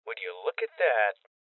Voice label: Voices Loot Collect Male Young